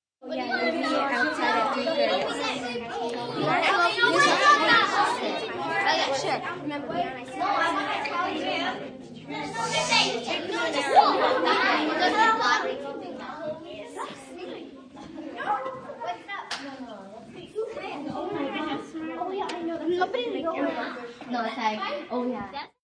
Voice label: Ambience Walla, Walla, Group, 10-12 children, chatting, talking, laughing, playing; Yelling Sound Effects; in large room, kids, males, females, girls, boys, human voice; VoiceBox; Twistedtracks